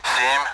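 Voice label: Speech, Russian, AWACS; Digits; 7-begin; DCS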